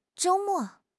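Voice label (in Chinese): "zhou1 mo4", Chinese; HSK; HSK3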